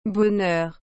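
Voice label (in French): "bonheur", French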